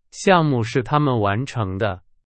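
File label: Chinese; hsk2; 是。。。的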